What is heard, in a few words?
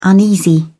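"uneasy", British English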